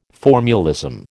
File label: "formulism", American